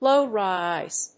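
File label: "low rise", English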